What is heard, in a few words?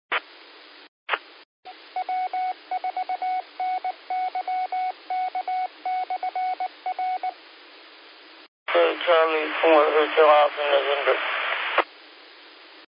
qso rec simplex logic